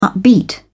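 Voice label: "upbeat", British English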